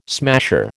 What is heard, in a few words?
"smasher", American